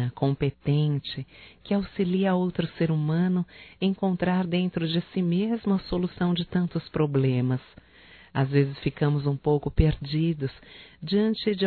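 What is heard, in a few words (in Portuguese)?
HOMENAGEM A PSICóLOGA (Feminino), Telemensagens; Homenagens; Telemensagens 2017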